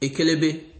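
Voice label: "ekelebe", Nigerian Pidgin, male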